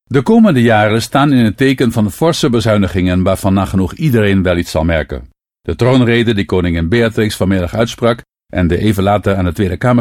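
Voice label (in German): Niederlande, männlich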